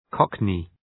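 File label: "cockney", English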